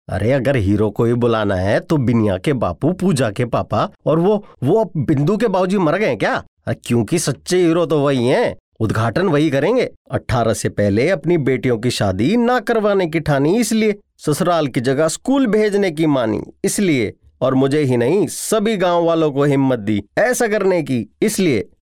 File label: hindi, male voice